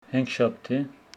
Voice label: Homshetsi thursday